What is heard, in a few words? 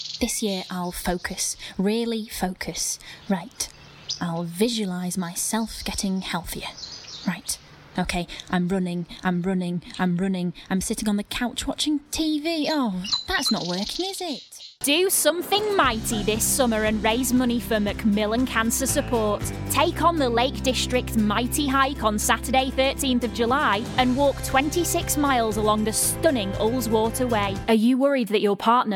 Commercials